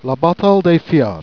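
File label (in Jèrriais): "bataie", Jèrriais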